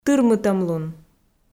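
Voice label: "тырмытӧмлун", Komi, female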